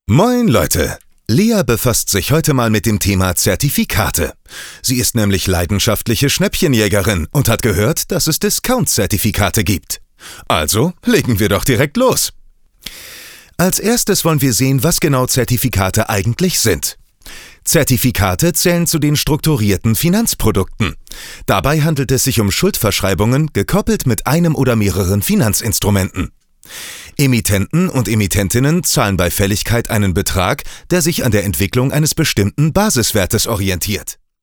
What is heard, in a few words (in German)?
e-learning